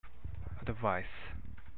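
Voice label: "advice", Japanese, male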